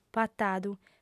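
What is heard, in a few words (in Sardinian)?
"patadu", female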